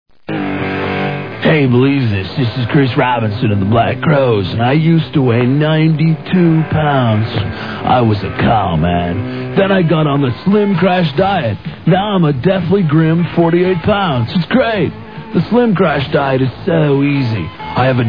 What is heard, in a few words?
Commercials; Crash Diet; Freekin Weird Audio